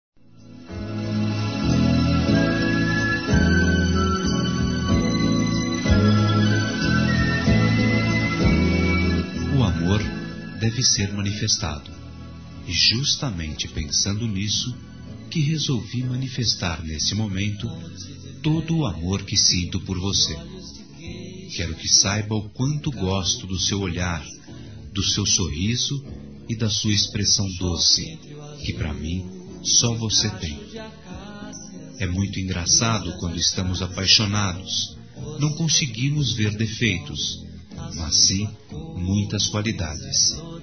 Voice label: ANIV. ROMANTICO M

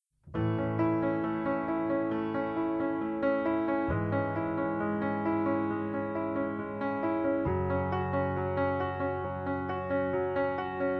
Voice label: Filhas (os) para pai, fem; Telemensagens 2017; Dia dos Pais

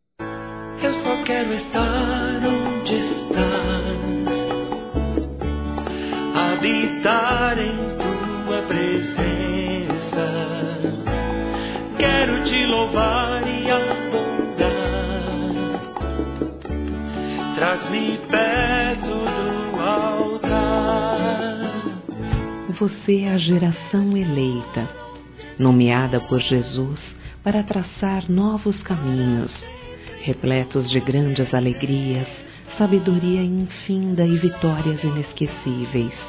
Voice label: Trilha FILHO, Gospel, fem; Telemensagens; Telemensagens 2017

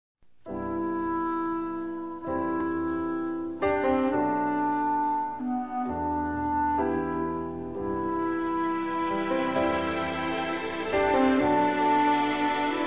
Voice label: RECONCILIAÇÃO F

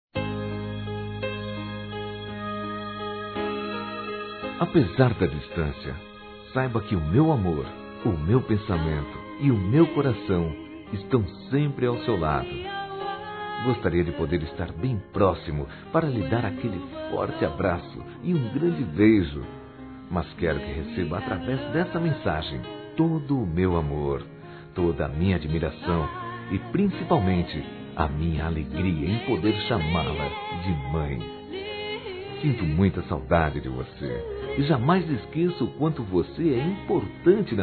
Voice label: MÃE DISTANTE, Masc; Dia das Mães; Telemensagens 2017

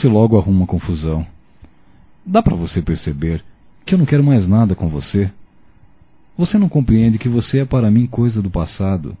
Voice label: Ex-mulher que nao larga do pé Masculino; Telemensagens 2017

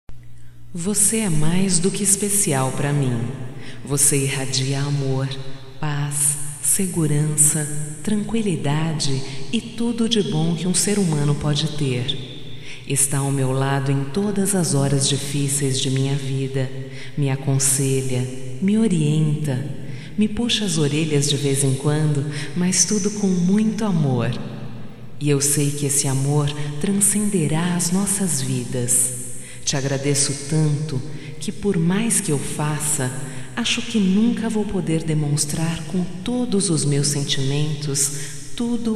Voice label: Agradec ao pai, fem, Só Voz; Telemensagens; AGRADECIMENTOS

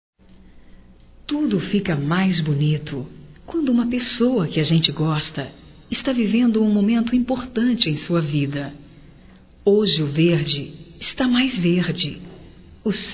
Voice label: Telemensagens Aniversarios fem; Telemensagens 2017

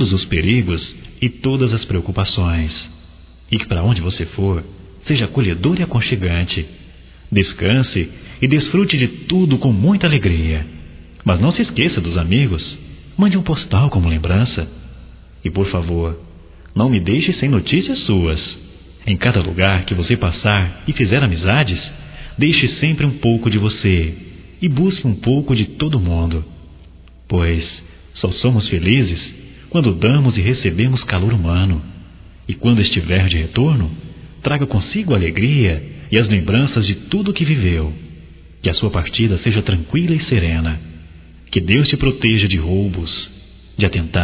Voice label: Boa Viagem Geral Masculino, Só Voz; Telemensagens; Telemensagens 2017

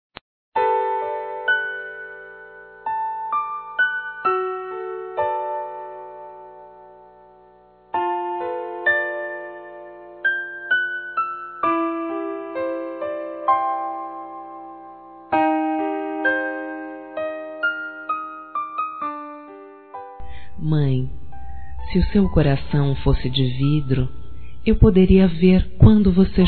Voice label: Para Mãe (adulto) femino, Telemensagens; Telemensagens 2017

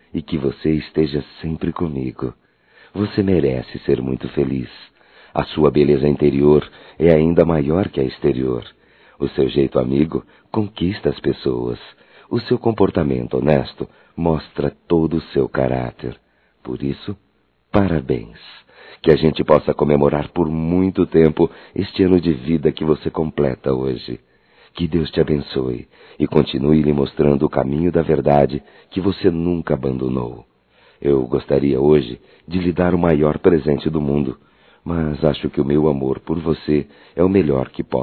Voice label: ANIV Romântico (M)

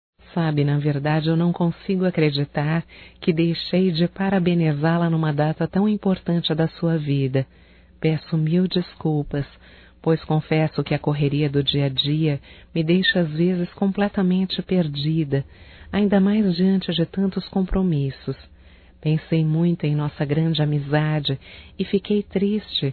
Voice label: Aniversário Feminino, Telemensagens; Aniversário Atrasado Amiga Feminino

